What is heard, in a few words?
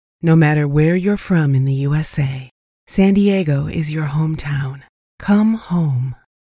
Warm, Professional Female Voice Over Talent; San Diego, CA; voiceover artist